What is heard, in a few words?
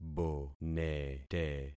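"bonete", concatenated syllables; Affixing experiment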